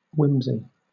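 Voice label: "whimsy" English, British; pronunciations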